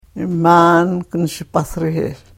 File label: karuk